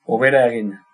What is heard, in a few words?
get well soon, basque; Hobera egin